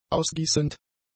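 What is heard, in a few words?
"ausgießend", German; adjectives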